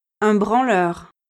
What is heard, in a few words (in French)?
"un branleur", French